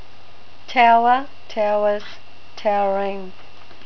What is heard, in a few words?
tower, NZ English